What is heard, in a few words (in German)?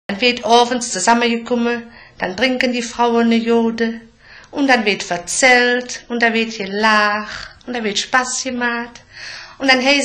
Koelsch, Ripuarisch; Mitteldeutsch